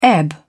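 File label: "ebb", British English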